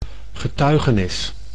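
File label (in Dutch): "getuigenis", Dutch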